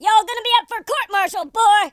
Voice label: "oops", Drill Sergeant; speech

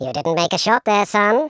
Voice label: "boring", Patronising

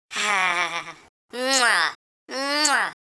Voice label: happy; Worms3D; Whoopsie

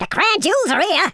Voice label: "drop", London Cabbie; speech

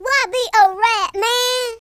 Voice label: "traitor", Gangsta; speech

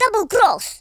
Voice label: oops, Russki

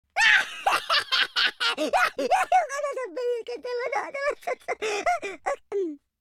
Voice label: happy, speech Italian

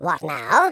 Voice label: "uh oh", Patronising speech

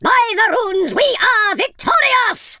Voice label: victory, speech, Viking

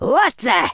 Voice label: what the, Super Villain; speech

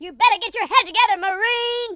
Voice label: traitor, Drill Sergeant; speech

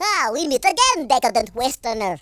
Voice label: amazing, Russki

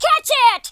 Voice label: "incoming", US Sports; speech

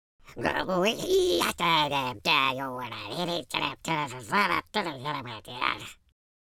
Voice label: angry

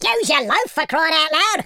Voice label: "stupid", London Cabbie; speech

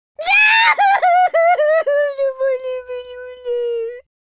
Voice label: sad, speech, French

